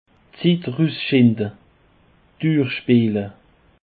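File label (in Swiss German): "zitt", Bas Rhin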